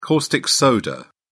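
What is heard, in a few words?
"caustic soda", British English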